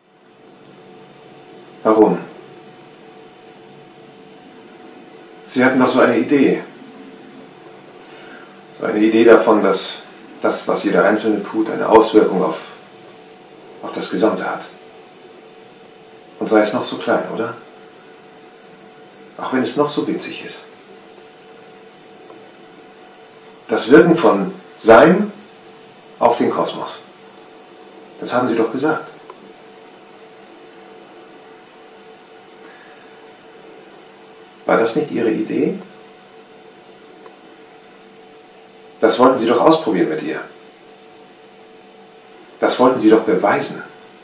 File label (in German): Fremdtake Neutral